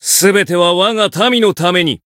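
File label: Voice variable chant, Japanese; claude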